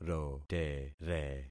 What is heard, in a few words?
"rotere", concat syllables; Affixing experiment